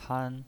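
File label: "han", Mandarin Chinese, first tone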